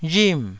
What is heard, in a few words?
"rhim4", taiwanese